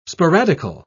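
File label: "sporadical", British English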